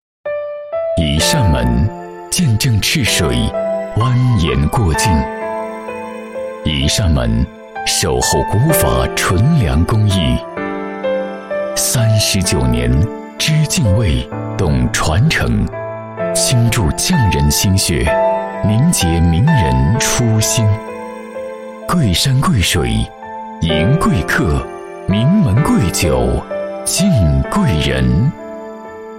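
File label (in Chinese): 男505 质感广告, 男505年轻磁性男声配音; 明门贵酒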